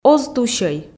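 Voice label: "оз тусьӧй", Komi, female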